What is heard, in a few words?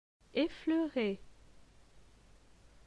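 "Effleurer", French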